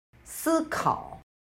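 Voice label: "思考", Chinese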